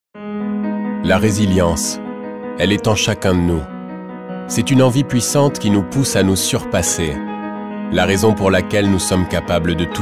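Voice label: French commercial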